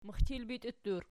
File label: "mixchilbitiuttur", Archi